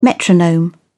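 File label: "metronome", English, British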